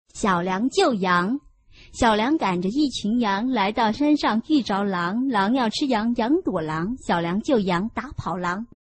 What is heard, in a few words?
chinese tongue twister